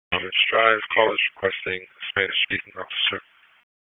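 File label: Police District Dispatch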